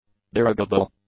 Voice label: "dirigible", english